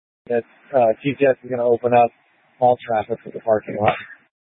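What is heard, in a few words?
Incident Tac 3, Scanner audio recording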